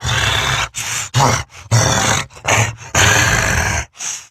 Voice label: Idle Grineer Wolf, en; Battle Barks

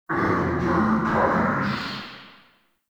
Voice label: Barks Unholy Slam Verb; Warframe; Tau; Roathe

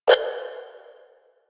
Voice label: Static, Battle Barks; Warframe; Lasrian; Lasrian Enemy C

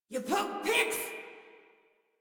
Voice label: Attack Female; Warframe; Modular Corpus Female

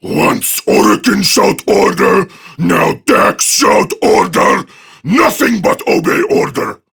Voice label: Patrol Prime Grineer Male; Tau; Battle Barks

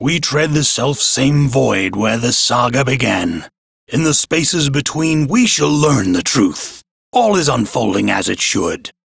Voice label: Crew Idle, Male, en; Warframe; Railjack Crew; Arbiters Hexis

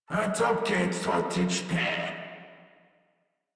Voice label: Patrol Crewmen en; Warframe; Corpus Crewmen; Crewman D

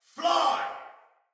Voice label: Shoot Arrow, Soldier Male; Battle; Barks; Dialog; Sinecure Soldier Male B

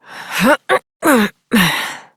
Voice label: Horse Mount Adult, Dialog; Duviri

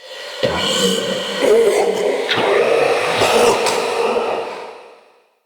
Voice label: Male Vocal Enemy Spotted; Mockery; Mockeries

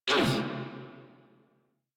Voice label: Pain Crewmen, en; Warframe; Corpus Crewmen; Crewman A; Battle Barks

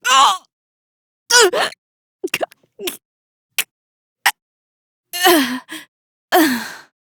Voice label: Death, female; Warframe; Railjack; Cephalon Suda

